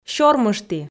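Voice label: "сёрмышті", Komi, female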